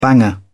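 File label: "banger", British English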